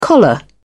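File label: "collar", British English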